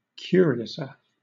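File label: "curiouser", British English; pronunciations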